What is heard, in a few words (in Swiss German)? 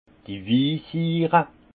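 "wisiire", Alsace Haut Rhin; vin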